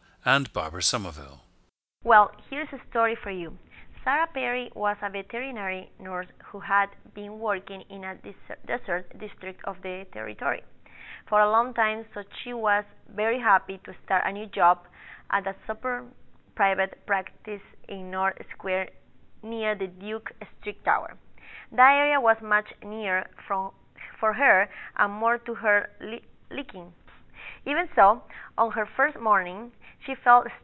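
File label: peru, dialects of English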